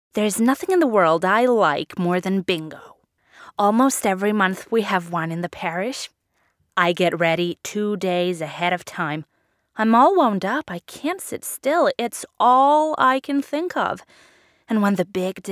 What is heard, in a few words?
US Accent